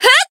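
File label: attack, Japanese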